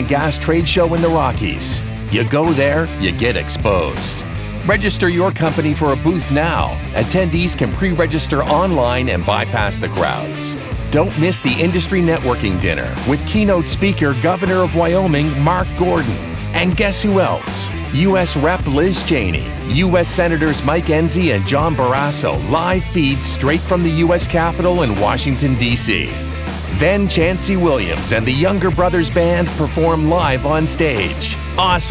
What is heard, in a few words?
Energy Exposition radio ad